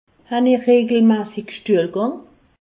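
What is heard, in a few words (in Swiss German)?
"stuehlgang", Alsatian; medecine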